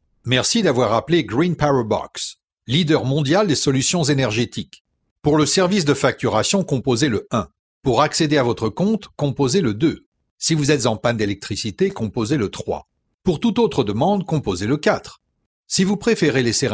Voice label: French native, bilingual